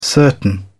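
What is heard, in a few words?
"certain", British English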